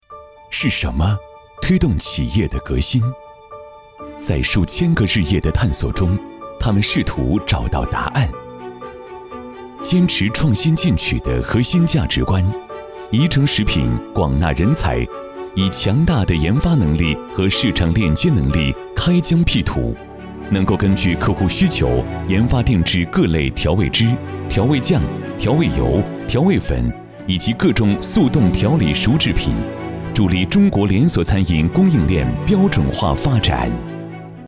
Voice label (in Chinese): 男 TVC